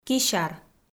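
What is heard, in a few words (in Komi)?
"кисяр", Komi, female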